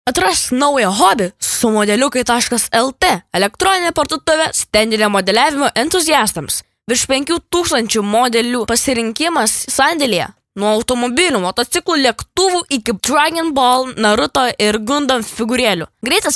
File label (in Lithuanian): balsai lietuviu vyras